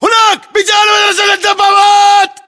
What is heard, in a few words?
landmark near tank, localized english battlechatter